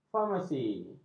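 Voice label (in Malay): "farmasi", Malay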